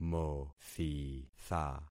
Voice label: "mothitha", concat syllables; Affixing experiment

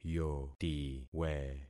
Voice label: "yotiwe", concat syllables; Affixing experiment

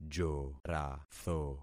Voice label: "joratho", concat syllables; Affixing experiment

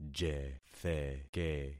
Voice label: "jetheke", concat syllables; Affixing experiment

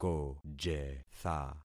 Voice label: "kojetha", concat syllables; Affixing experiment

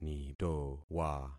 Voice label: "nitowa", concat syllables; Affixing experiment

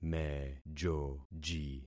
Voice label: "mejoji", concat syllables; Affixing experiment

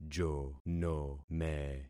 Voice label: "jonome", concat syllables; Affixing experiment

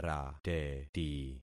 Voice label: "rateti", concat syllables; Affixing experiment

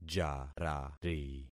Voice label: "jarari", concat syllables; Affixing experiment

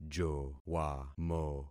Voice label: "jowamo", concat syllables; Affixing experiment

